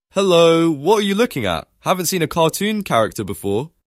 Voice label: Voice Cartoon Man